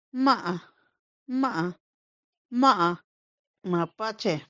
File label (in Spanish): mapache ma´a, mixteco; vocabulario palabras en mixteco